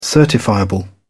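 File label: "certifiable", British English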